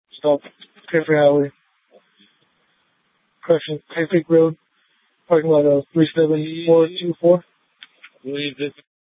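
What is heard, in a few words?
Sheriff, Parks, Sheriff radio scanner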